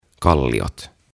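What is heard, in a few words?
"Kalliot", Finnish; Rocks; OTAVA KONSERNI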